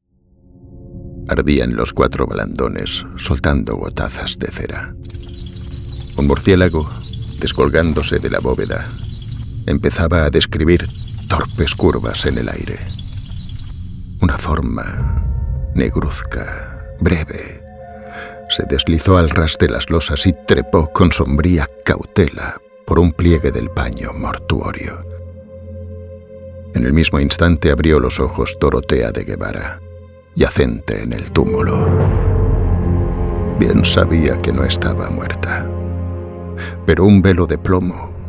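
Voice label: Spanish Europe, narration; GoLocalise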